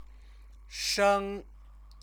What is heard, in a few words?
"sheng", Chinese; 生; be born; verb